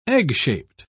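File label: "egg-shaped", British English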